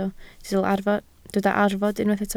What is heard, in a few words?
"arfod", Welsh